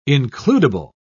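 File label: "includable", British English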